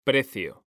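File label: "precio", Spanish, male; mekanta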